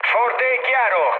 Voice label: chat, speech it; War Thunder